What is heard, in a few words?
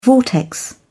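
"vortex", British English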